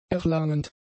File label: "erlangend", German; adjectives